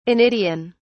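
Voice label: "anidian", British English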